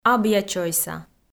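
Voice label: "абъячойса", Komi, female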